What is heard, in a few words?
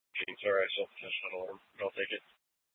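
Police Department North Dispatch, scanner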